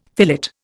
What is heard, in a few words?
"fillet", Indian